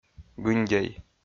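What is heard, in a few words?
"гындей", Komi-Zyrian, male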